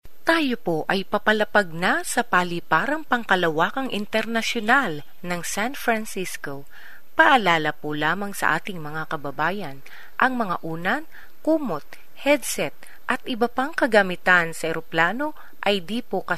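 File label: Filipino, female, corporate; Fillipino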